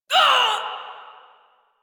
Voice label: Pain, Female; Railjack Crew; Cephalon Suda